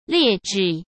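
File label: "劣质", Mandarin Chinese; chinese-flashcards; hsk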